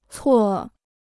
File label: "错", Chinese